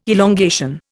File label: "elongation", Indian